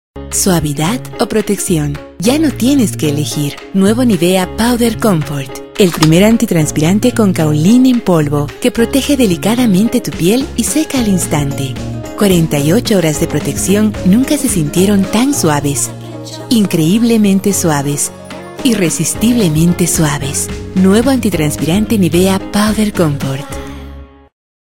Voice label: spot